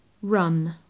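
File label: "run", British English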